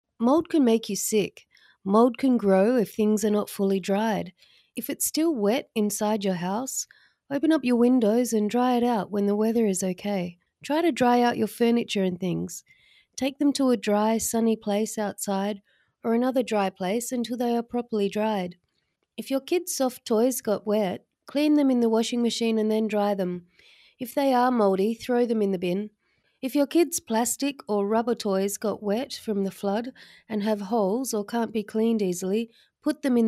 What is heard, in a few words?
mould plain english